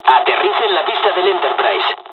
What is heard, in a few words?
landing, dialogs spanish; midway; War Thunder